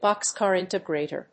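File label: "box-car integrator", English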